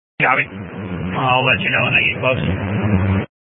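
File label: Incident Tactical 1, Scanner audio recording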